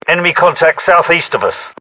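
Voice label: UK threat infantry south east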